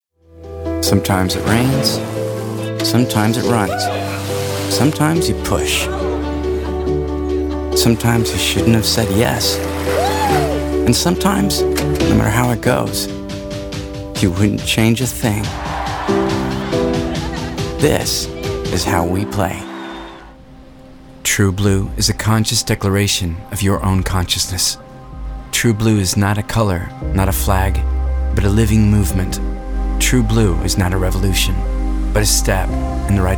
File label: Narration Casual